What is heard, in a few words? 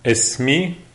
my name is, lebanese, arabic; Esmi ...